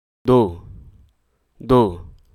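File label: Hruso Aka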